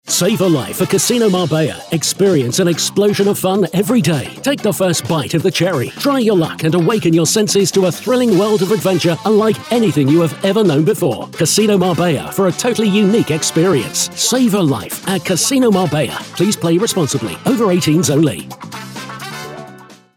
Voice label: COMMERCIAL; CASINO MARBELLA